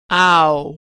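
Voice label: sound "aw", American English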